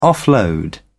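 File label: "offload", British English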